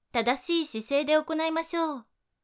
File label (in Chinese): 使用正确的姿势进行吧, 女生为你进行健身计数的语音, 姐姐